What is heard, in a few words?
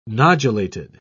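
"nodulated", British English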